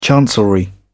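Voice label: "chancellery", British English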